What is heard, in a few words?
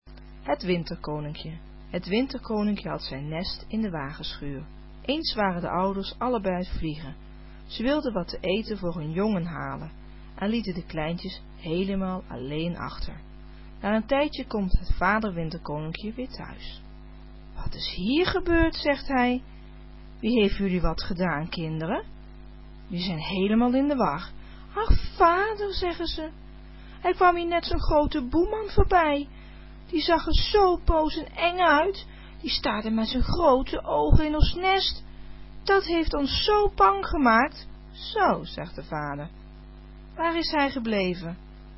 nederlands